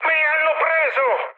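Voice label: Italian aircraft destroyed mood high, speech; War Thunder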